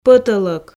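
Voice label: "пӧтӧлок", Komi, female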